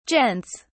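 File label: "gents", British English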